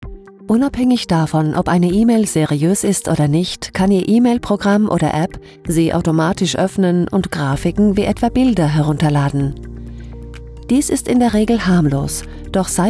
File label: german corporate videos; native in both German and Norwegian